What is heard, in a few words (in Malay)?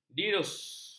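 "dirus", Malay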